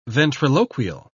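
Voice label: "ventriloquial", British English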